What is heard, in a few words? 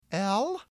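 "L", English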